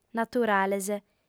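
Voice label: "naturales", female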